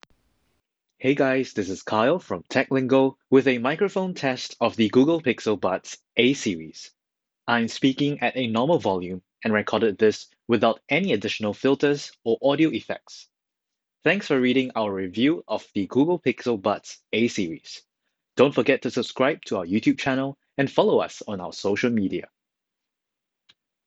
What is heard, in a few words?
Google Pixel A Series Microphone Sample